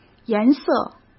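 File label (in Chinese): "颜色", Mandarin Chinese; hsk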